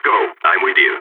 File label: following friend, balkan